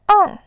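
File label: "ang", Mandarin Chinese, tone 4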